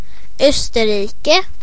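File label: austria, Swedish; gcompris-data; geography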